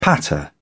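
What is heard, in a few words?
"patter", British English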